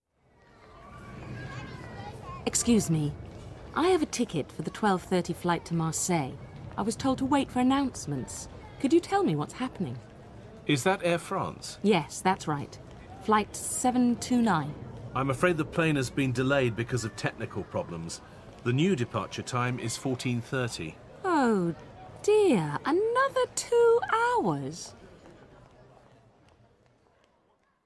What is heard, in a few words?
Airport Announcement English